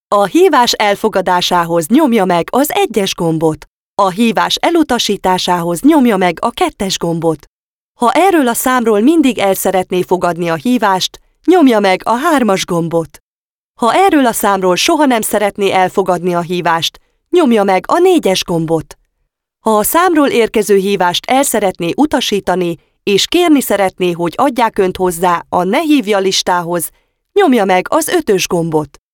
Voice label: Accept Call Navigation, hungarian